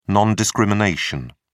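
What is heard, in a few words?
"non-discrimination", British English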